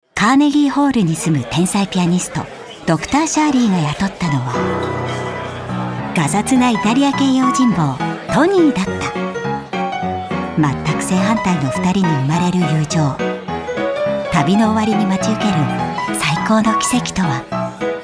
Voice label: Trailer